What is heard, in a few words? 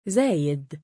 male; زَايِد; ザーイド; Arabic